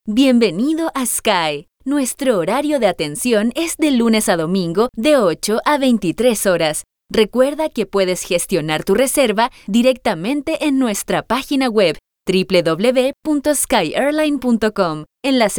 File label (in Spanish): Locutora